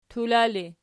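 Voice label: "tula" ergative, Archi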